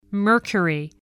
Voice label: "mercury", voice, spelling